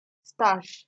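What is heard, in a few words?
"starch", Nigerian Pidgin, female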